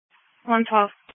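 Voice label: Police Patrol Dispatch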